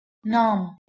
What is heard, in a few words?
"naam", Persian